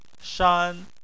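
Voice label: "shan" tone 1, Chinese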